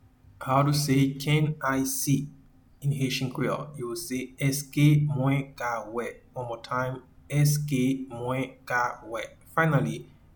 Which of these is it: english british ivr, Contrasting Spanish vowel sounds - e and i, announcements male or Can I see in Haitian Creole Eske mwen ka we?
Can I see in Haitian Creole Eske mwen ka we